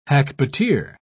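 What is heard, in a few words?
"hackbuteer", British English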